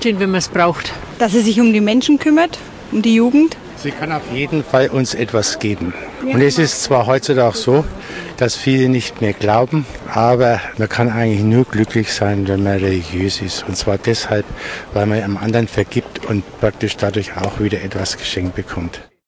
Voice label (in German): umfrage ueber kirche